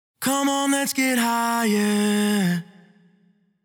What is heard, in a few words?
Vocal Phrase Come On Lets Get Higher 128 Bbmin Wet